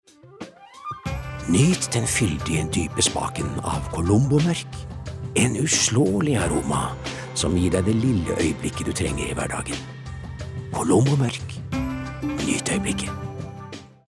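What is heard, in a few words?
Norwegian soft sell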